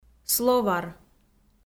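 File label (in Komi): "словар", Komi, female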